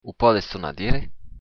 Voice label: say again, occitan